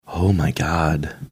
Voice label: quietly saying oh my god